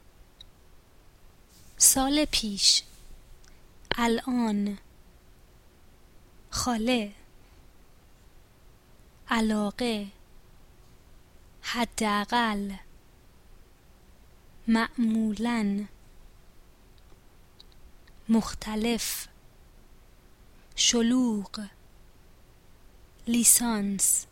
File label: pronunciation, Persian